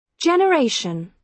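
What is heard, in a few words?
"generation", British English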